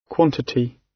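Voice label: "quantity", British English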